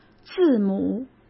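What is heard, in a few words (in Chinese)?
"字母", Mandarin; chinese flashcards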